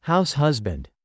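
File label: "house husband", English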